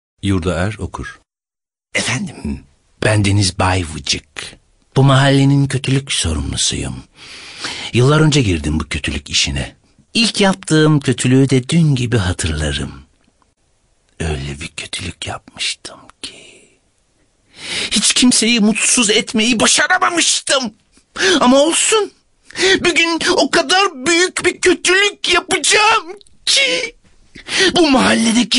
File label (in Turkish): animasyon